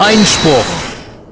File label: "Objection", German; Kristoph